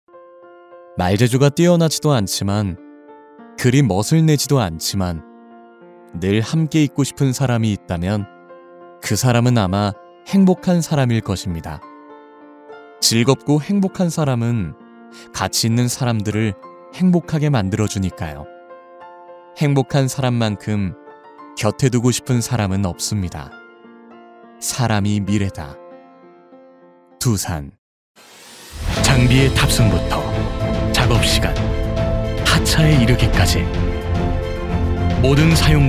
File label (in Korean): 여성 (Female); 광고; 나레이션